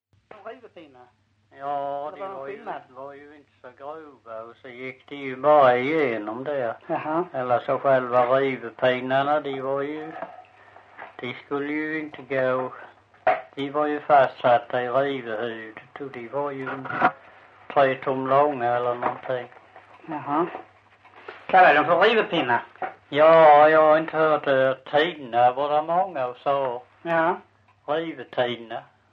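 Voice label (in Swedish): Visseltofta Skåne, svenska sagor dialekter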